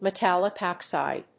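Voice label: "metallic oxide", English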